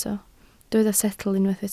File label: "setl", Welsh